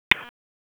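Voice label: Police Southwest District Dispatch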